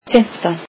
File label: icelandic fifteen